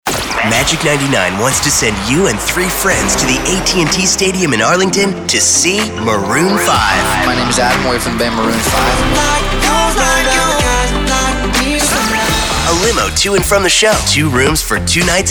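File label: radio men adult contemporary; radio imaging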